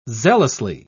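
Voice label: "zealously", British English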